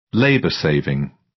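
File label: "labour-saving" British; Technology; Công nghệ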